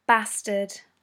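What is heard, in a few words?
"bastard", British English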